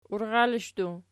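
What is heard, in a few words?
"urralishdu" nominative, Archi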